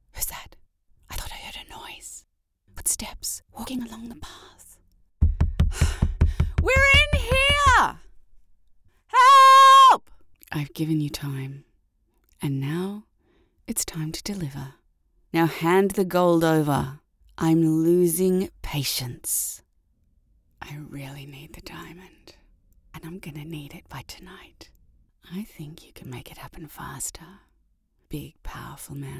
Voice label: adult character